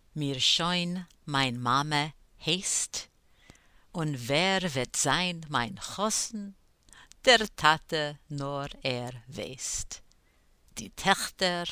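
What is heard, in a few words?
soprano pronunciation, chorus; all the way through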